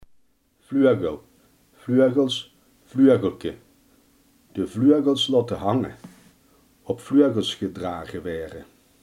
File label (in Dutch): "vleugel", limburgse